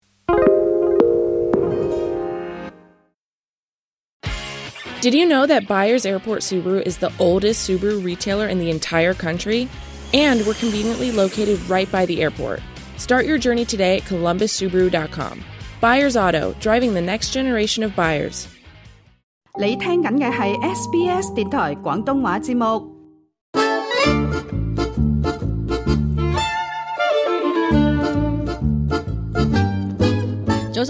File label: cantonese; 【賀年升級菜】香酥芋泥雞; 好煮意; SBS Chinese